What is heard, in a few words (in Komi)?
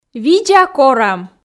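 "видза корам", Komi, female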